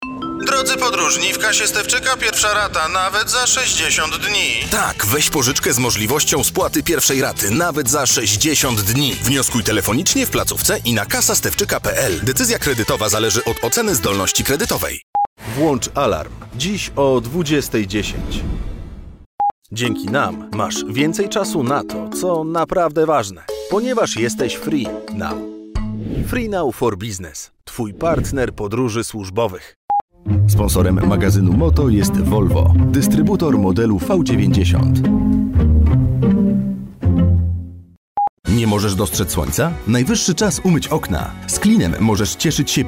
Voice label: COMMERCIAL